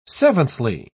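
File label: "seventhly", British English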